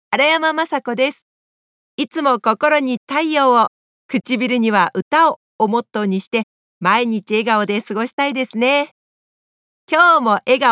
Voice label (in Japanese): フリートーク